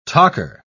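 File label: "tocher", British English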